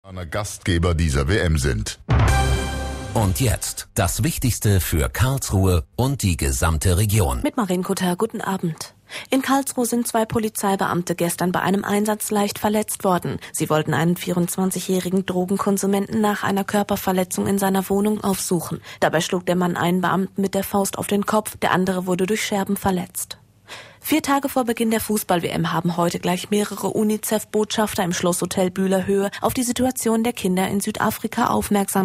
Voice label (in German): Nachrichten 17 Uhr